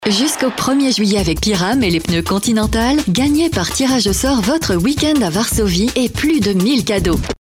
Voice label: comercial francés

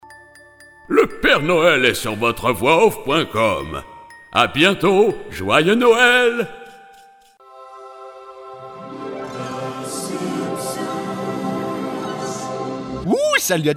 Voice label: interpretacion frances

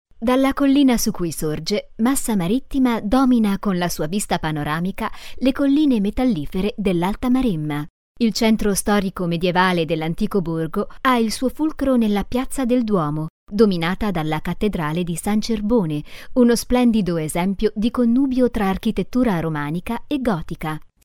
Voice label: narracion italiano

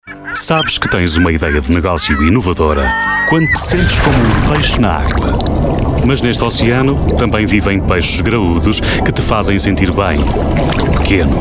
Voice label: narracion portugues

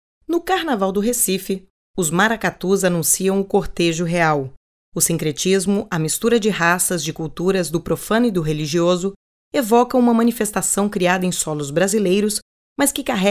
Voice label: narración portugués Brasil

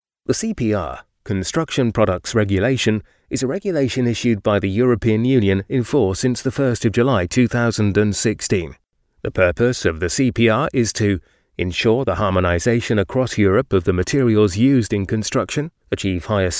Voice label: narración inglés UK

